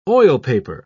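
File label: "oilpaper", British English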